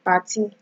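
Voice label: "party", Nigerian Pidgin, female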